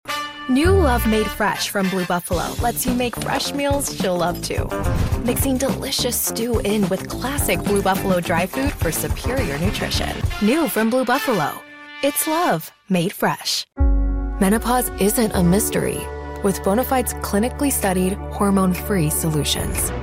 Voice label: Commercial Demo